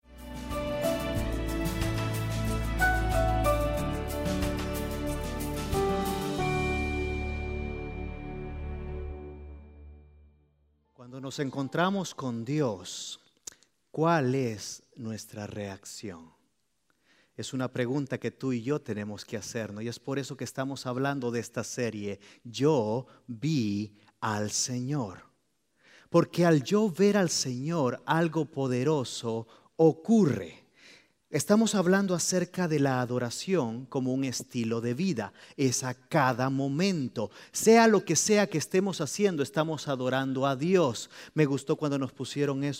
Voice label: Spanish Sermon

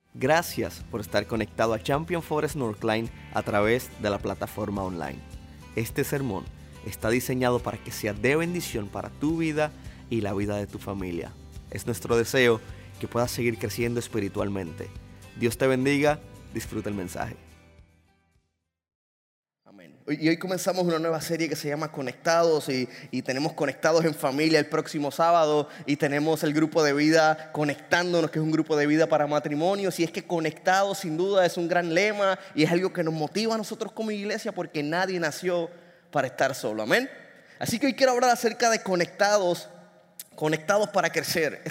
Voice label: Sermon Spanish

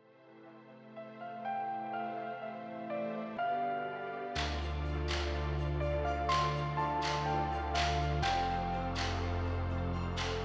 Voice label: SERMON SPA

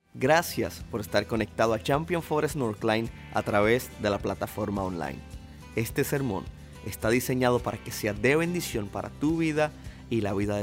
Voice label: Sermon Spanish

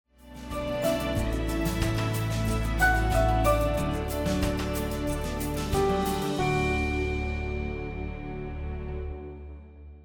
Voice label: SERMON SPA

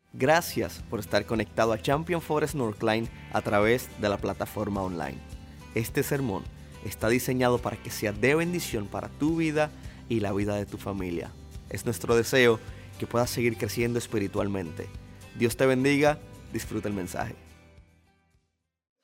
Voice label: Sermon Spanish

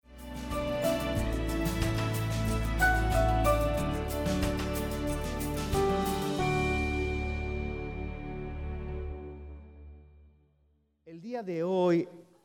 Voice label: Spanish Sermon

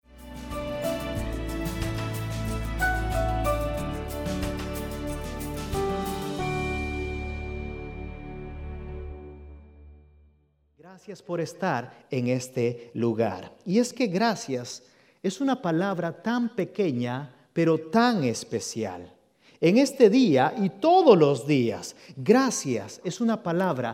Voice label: Spanish Sermon

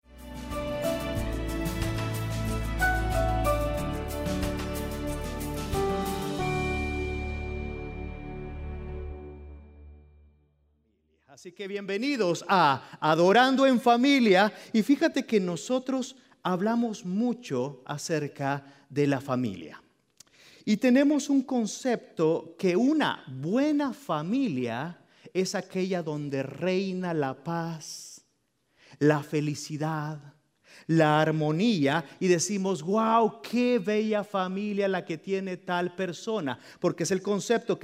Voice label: Spanish Sermon

